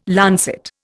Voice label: "lancet", Indian

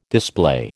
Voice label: "display", American

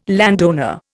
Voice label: "landowner", Indian

